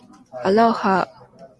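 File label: "aloha", Japanese, female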